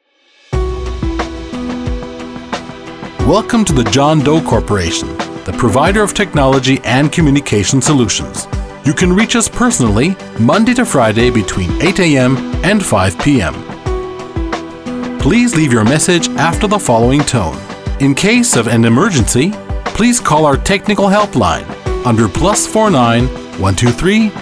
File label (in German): Ansage Englisch